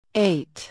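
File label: "Eight", English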